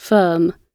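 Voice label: "firm", British English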